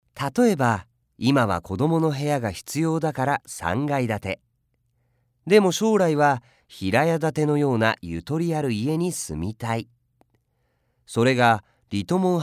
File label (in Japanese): 優しさ